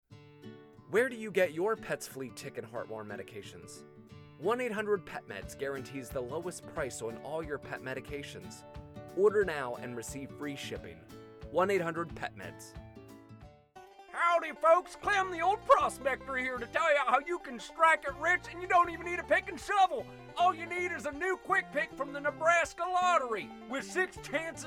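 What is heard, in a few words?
Commercial voice over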